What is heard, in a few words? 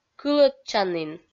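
"кылӧдчанін", Komi, female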